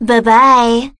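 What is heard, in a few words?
Female tech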